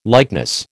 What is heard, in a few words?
"likeness", American